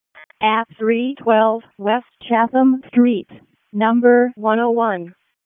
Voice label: EMS ALERT; wake